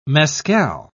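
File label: "mescal", British English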